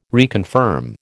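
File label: "reconfirm", American English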